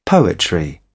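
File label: "poetry", British English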